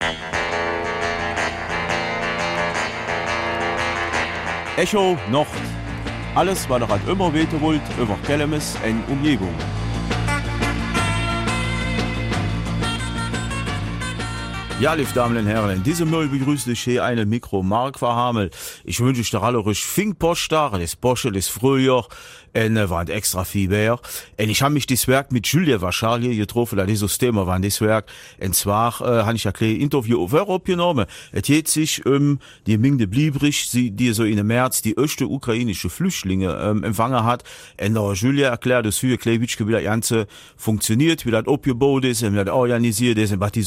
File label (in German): Kelmiser Mundart; Empfang ukrainischer Flüchtlinge in der Gemeinde Bleyberg